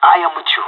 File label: following friend, pirate; Counter Strike Model Pack; CS:GO